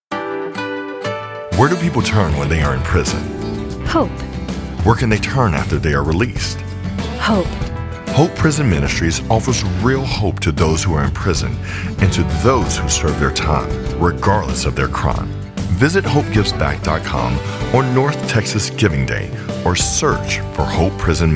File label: Fem Answers